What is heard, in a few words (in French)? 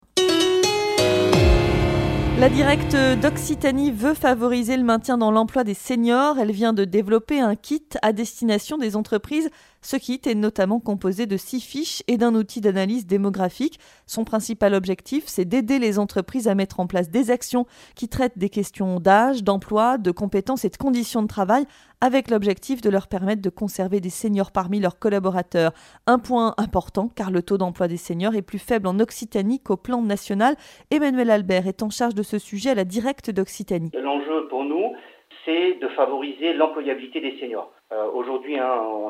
interview